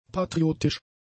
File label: "patriotisch", German; adjectives